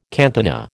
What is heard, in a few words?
"cantina", American